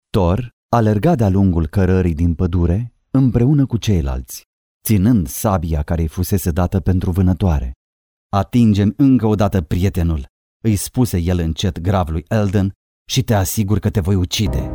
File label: romanian audiobooks